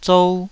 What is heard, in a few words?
"zou2", taiwanese